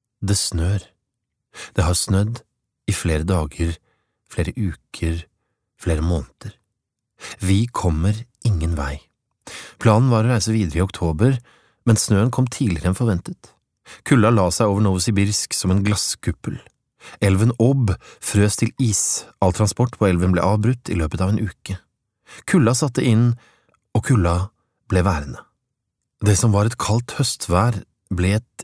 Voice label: no lydbok

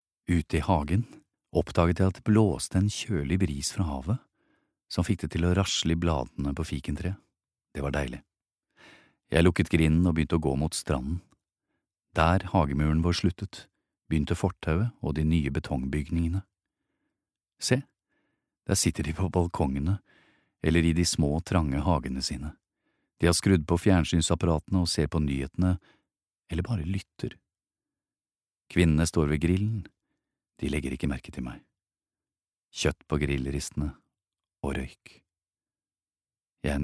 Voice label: no audiobook

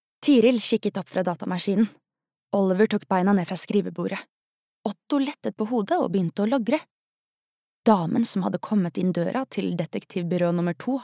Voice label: Norwegian barnebok, female

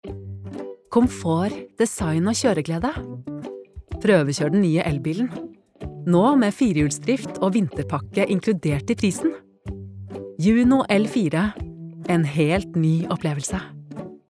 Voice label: reklame, norwegian, female

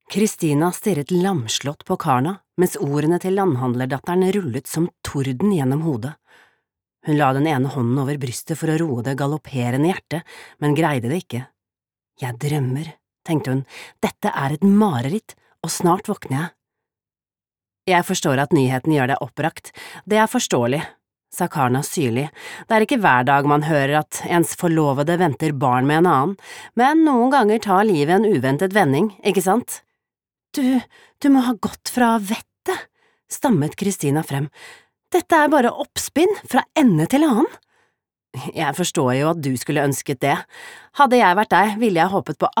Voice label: no lydbok; female; norwegian

